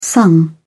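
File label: "sung", English, uk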